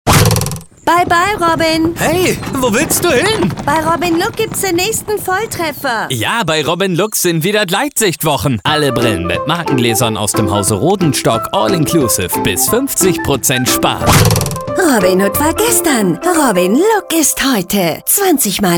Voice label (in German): Radiospot; Robin Look Gleitsichtwochen